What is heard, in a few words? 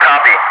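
"copy", English, Wingman; DCS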